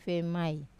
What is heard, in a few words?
"vemai", fat, Wamesa